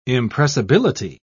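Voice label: "impressibility", British English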